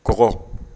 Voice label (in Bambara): "kɔgɔ", Bambara